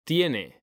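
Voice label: "tiene", Spanish, male; mekanta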